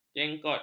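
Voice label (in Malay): "jengkot", Malay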